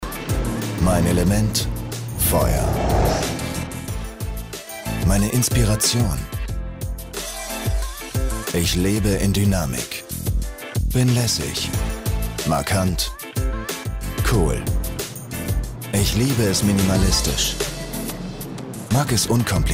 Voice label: Commercial Internet